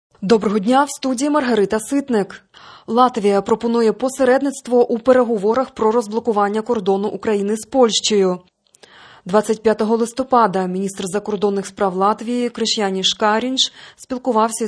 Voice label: Ukrainian Morning News